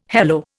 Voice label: "hello", Indian